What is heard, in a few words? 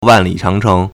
"wan4li3chang2cheng2", Chinese